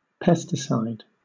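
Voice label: "pesticide", British English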